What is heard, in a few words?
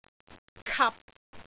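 "kap6", Cantonese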